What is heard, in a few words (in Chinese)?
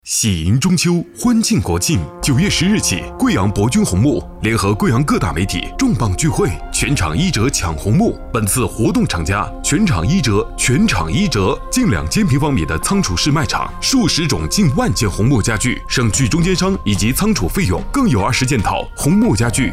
男国 广告 促销 浑厚; 红木促销